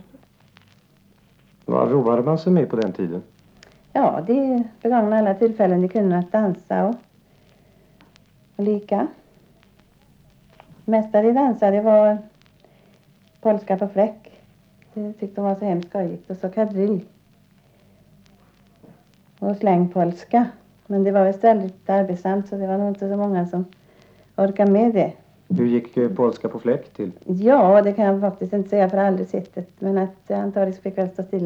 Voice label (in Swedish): Intervju; om vad som dansades